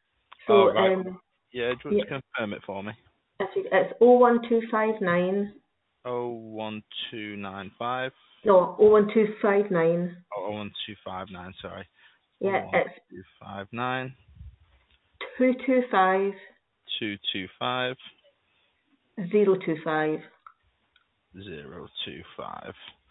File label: call recording